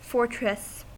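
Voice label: "fortress", American English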